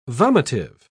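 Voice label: "vomitive", British English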